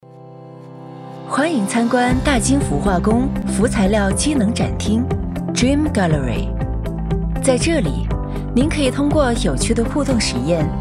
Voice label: 女 专题 科技感 轻快 展厅介绍; 大金氟化工

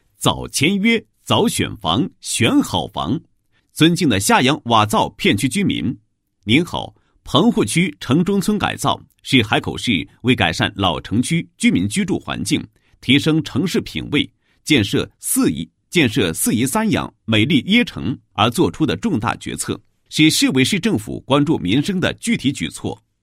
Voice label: 男27; 政府; 致下洋瓦灶片区百姓的一封信

